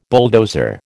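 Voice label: "bulldozer", American English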